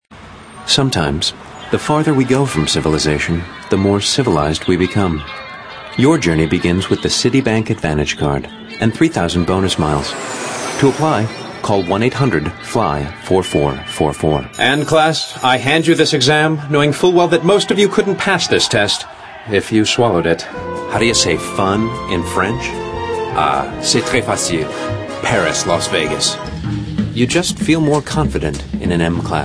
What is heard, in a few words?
male, sophisticated